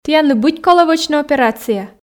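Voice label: "тіянлы быть колӧ вӧчны операция", Komi, female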